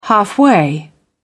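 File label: "halfway", British English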